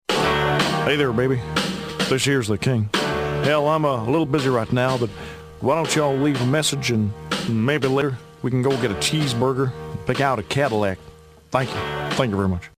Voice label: voice greeting